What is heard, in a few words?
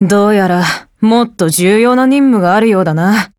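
FEED, Japanese; Mk46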